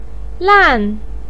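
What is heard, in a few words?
"lan" fourth tone, chinese, voice pinyin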